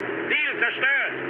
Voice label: bomb success, mood med, speech ge; War Thunder